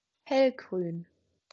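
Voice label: "hellgrün", German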